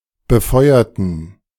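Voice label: "befeuerten", German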